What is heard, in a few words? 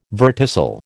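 "verticil", American English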